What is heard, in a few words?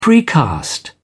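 "precast", British English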